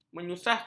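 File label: "menyusahkan", Malay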